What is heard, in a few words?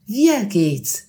how are you, alsatian